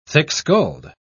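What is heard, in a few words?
"thick-skulled", British English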